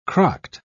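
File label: "crocked", British English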